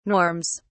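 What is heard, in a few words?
"norms", British English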